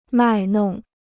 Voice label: "卖弄", Chinese

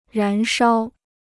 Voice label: "燃烧", Chinese

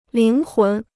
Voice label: "灵魂", Chinese

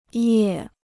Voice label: "业", Chinese

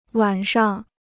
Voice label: "晚上", Chinese

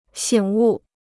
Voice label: "醒悟", Chinese

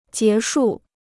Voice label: "结束", Chinese

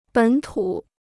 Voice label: "本土", Chinese

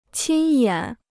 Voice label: "亲眼", Chinese

